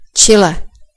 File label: chile, cs; gcompris; geography